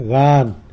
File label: "gan", tlacolula; gain